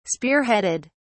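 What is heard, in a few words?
"spearheaded", British English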